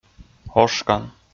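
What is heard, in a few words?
"ошкан", Komi, male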